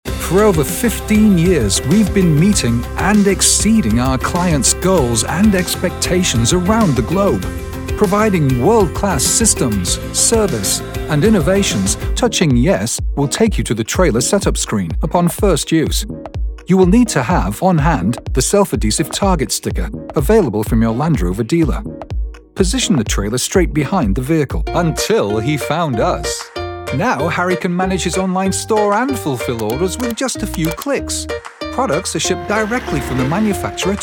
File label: Narration